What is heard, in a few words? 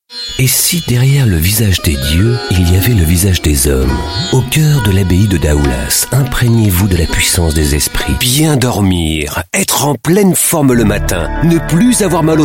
French Commercials, French Male